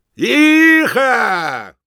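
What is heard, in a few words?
battlecry, Spanish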